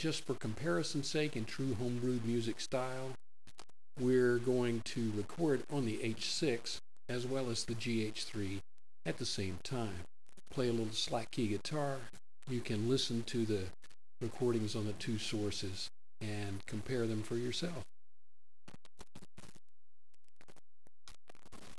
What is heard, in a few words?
narration